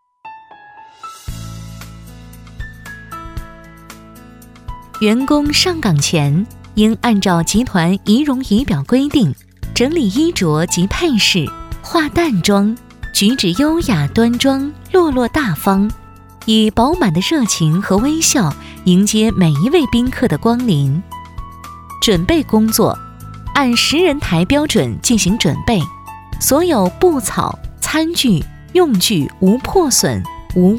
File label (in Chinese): 广播提示 酒店 亲切; 蓝海酒店管理公司